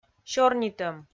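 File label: "сёрнитӧм" Komi, female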